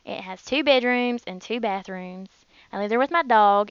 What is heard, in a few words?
southern; It has two bedrooms and two bathrooms, and I live there with my dog